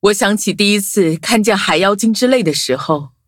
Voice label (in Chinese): upgrade, zh-hant; Cookie Run